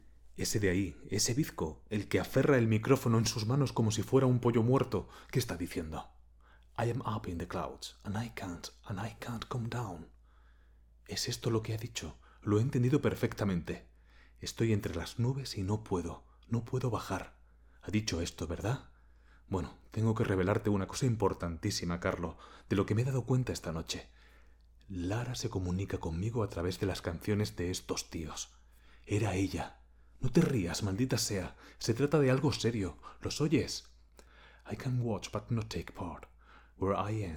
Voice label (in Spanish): caos calmo ingles